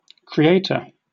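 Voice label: "creator", British English; pronunciations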